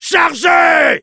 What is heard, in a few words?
Soldier battlecry fr; Chargez !